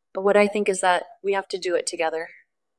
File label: Voice Acting, es